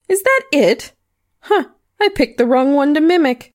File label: Female Constable combat taunt